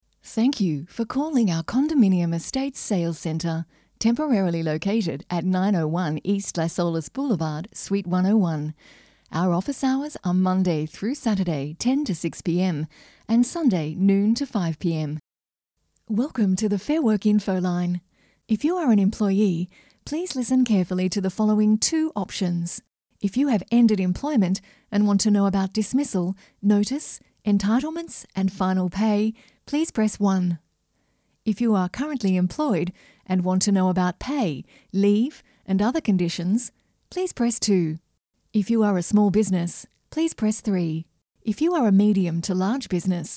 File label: Voicemail IVR; WJR